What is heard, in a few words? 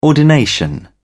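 "ordination", English, British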